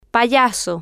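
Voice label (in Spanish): "payaso", Spanish, female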